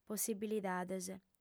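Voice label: "possibilidades", Sardinian, female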